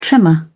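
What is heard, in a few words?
"tremor", British English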